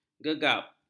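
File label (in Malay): "gegap", Malay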